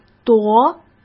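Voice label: "夺", Mandarin Chinese; chinese-flashcards; hsk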